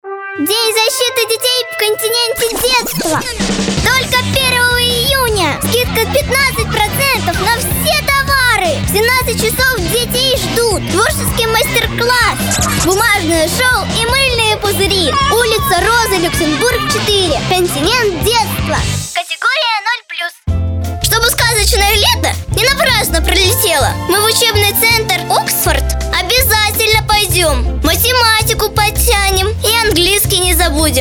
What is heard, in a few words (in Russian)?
девочка 9 лет